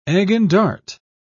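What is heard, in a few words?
"egg-and-dart", British English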